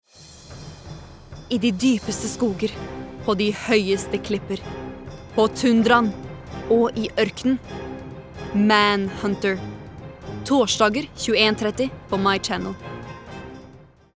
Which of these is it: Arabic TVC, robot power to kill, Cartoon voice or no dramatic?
no dramatic